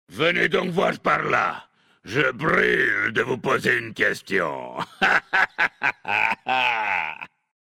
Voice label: Homme Blague; Venezparici

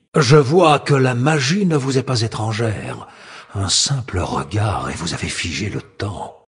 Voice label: Male Flirt, voix françaises; Sacrenuit; warcraft; races alliées

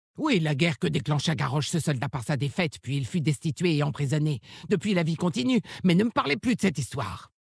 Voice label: Orc Maghar Femme Blague, VF; Garrosh

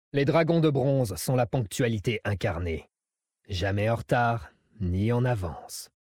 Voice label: Male Blague Ponctualite

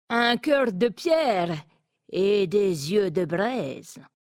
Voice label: Femme Flirt; Braises; Nain sombrefer

